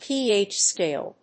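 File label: "ph scale", English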